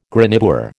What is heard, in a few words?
"graniteware", American